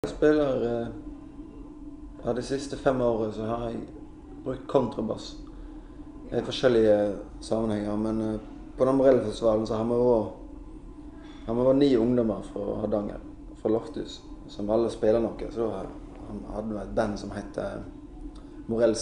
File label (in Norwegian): Ullensvang, dialekt